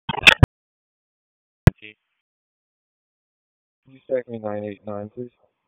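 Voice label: Raleigh Police Southwest District Dispatch, p25; wake p25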